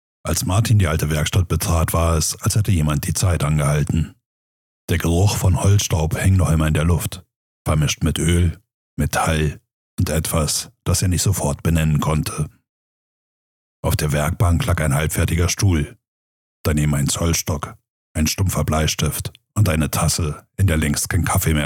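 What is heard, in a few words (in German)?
Hörbuch Sprachdatei; Sprecherprofil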